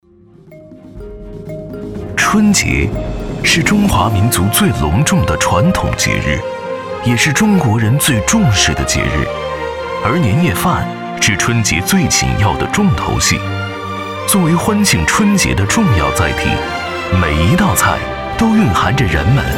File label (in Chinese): 纪录片 舌尖 春节的年夜饭